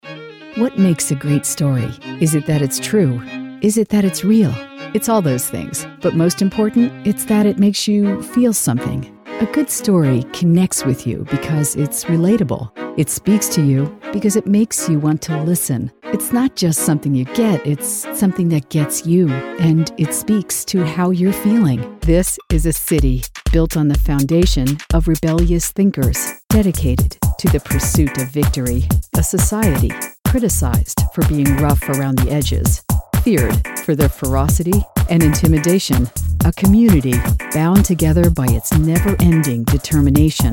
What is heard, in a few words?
Storytelling Promo eLearning Mixed YA